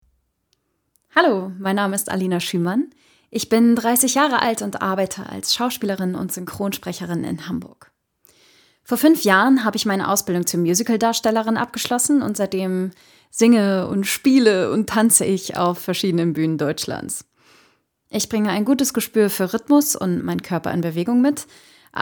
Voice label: Natuerliche Stimme, German, Norddeutsch; Hallo; Audio Drama (Hörspiel); Germany

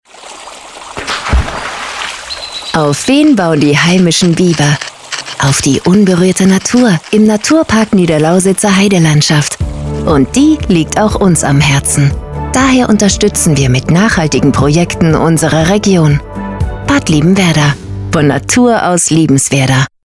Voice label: Werbung Natur; Bad Liebenwerda

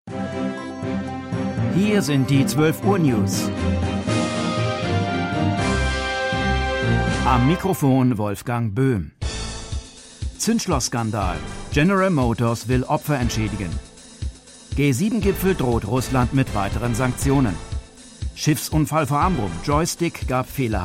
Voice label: nachrichten modern; LOFT VOICES

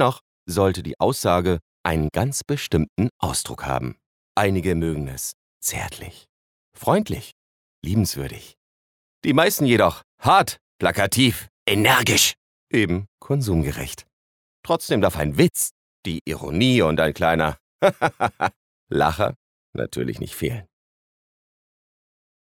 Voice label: werbung voice over erzaehlung vielerlei arten

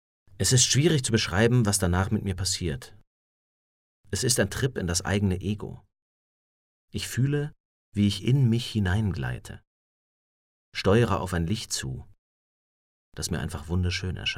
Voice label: Schauspiel

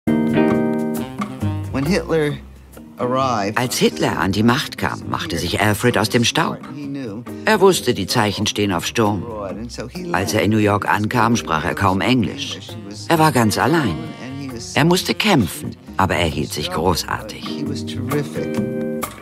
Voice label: Doku, Overlay, German; It Must Swing 01 | Doku

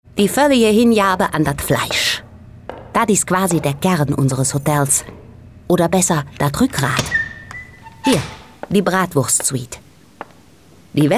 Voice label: Rheinisch

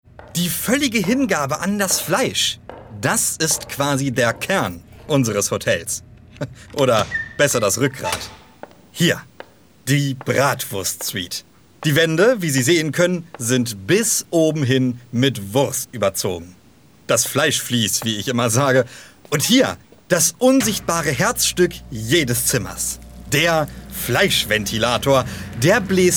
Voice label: Junge

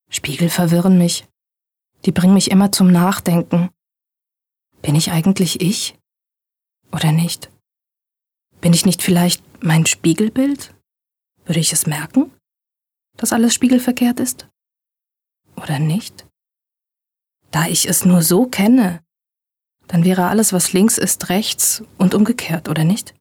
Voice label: Innerer Monolog, BER

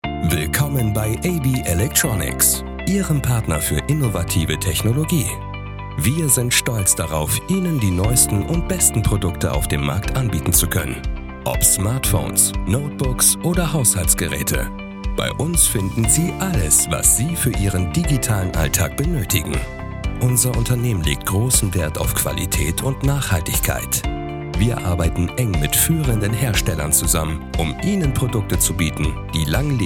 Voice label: Imagefilm; AB Electronics

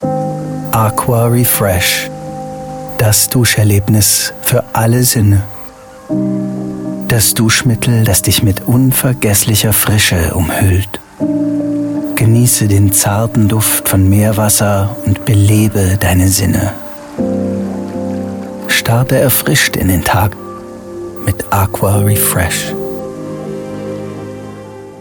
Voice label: Werbung intim Pflegeprodukt Duschgel, Commercial (Werbung), German; Werbung - Intim - Pflegeprodukt Shower

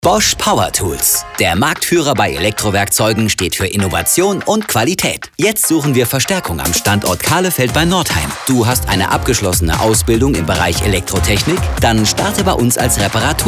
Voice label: DEUTSCH MALE Werbung Off Powertools Jobs im Norden; Bosch

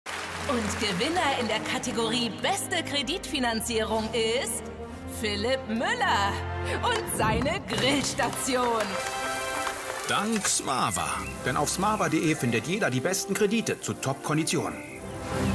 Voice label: TV Werbung; smava

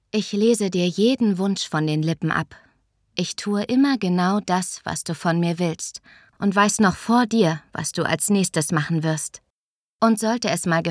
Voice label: werbung auto

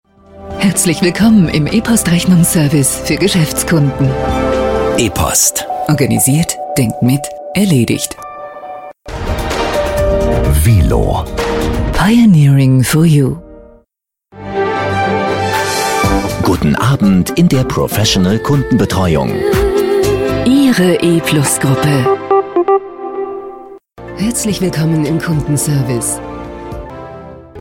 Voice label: telefon mix deutsch; LOFT VOICES

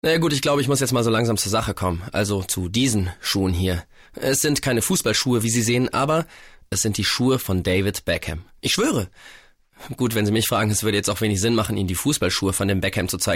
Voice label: erzaehlung

